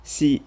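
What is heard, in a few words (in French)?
"ci", learn French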